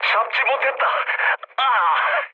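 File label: Korean bomb failure mood med; War Thunder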